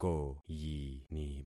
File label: "koyini", concat syllables; Affixing experiment